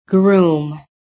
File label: "GROOM", american